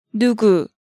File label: "Nugu", Hangeul sounds; DigitalSoju